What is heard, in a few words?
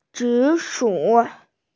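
"zi shu", Mandarin Chinese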